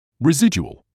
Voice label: "residual", English

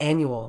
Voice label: "annual", Medical English; ˈæn.ju.əl; AN-yoo-uhl

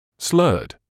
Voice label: "slurred", English

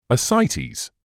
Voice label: "ascites", medical english uk; uh-SIGH-teez; əˈsaɪ.tiːz

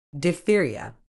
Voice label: "diphtheria", uk

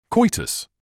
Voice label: "coitus", medical english uk; ˈkɔɪ.təs; KOY-tuhss

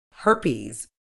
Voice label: "herpes", medical English

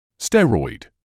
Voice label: "steroid", English

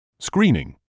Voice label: "screening", English

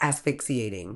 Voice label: "asphyxiating", Medical English; əs.fɪk.siːˈeɪ.tɪŋ; uhs-FICK-see-ay-ting

